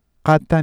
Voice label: "qata", cusco quechua; ['qata] ‘cloak, overcoat, blanket’